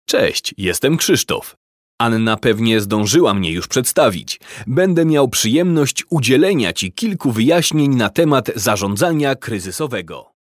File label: pl corp narration